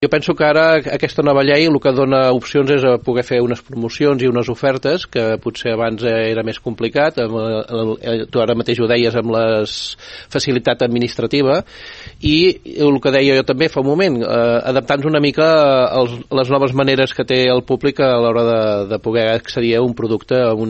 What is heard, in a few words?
PROMOS